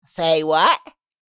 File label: Say what meme voice male